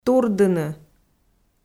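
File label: "турдыны", Komi, female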